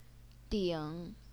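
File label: "ding", third tone, Chinese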